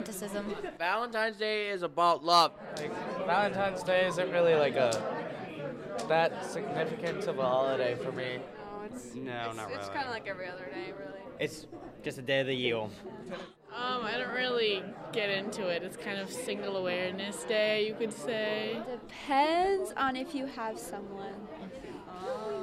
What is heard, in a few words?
Valentines Vox Pop